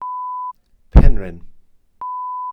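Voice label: "penrhyn", Welsh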